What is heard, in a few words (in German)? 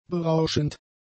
"berauschend", German; adjectives